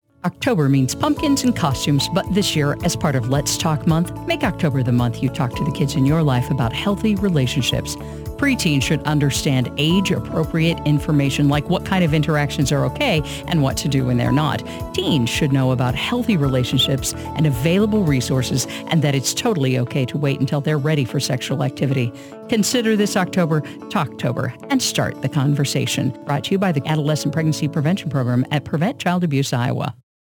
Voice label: Radio; LetsTalkMonth